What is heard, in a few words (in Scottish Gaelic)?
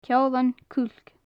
"ceolan-cuilc", gaelic; reed warbler; ceòlain-chuilc, cuilceagan; Faclan Nàdair